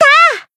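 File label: Voice attack, japanese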